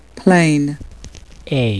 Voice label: "plane", US

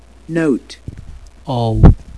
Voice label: "note", US

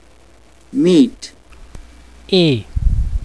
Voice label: "meet", US

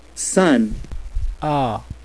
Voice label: sun us

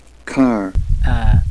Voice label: car us

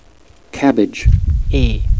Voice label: "cabbage", US

